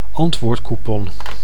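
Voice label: "antwoordcoupon", Dutch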